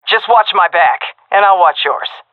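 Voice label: round start, female; vo; Counter Strike Model Pack; CS:GO; seal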